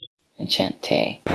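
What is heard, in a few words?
Voice Acting Spanish Female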